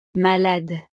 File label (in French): "malade", frances; estados animo